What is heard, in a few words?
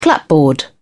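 "clapboard", British English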